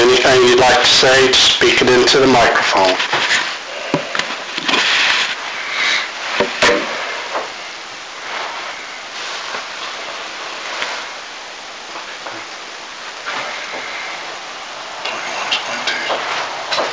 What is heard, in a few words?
evp deep voice at end; The Old Place